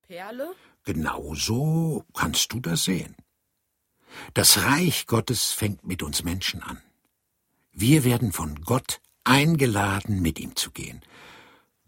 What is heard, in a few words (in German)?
Erzaehler